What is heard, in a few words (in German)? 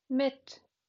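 "mit", German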